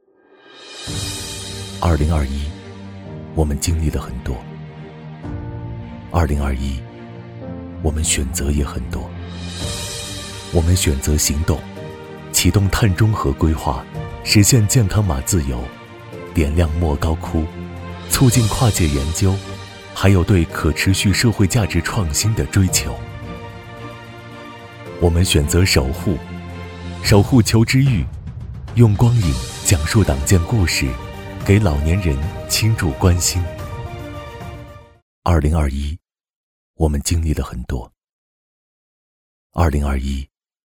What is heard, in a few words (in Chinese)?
男 国 大音 旁白; 腾讯2021-我们折善而行